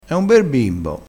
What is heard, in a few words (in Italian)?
"bimbo", vocabolario dialettale